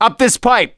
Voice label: misc directional, voice; gambler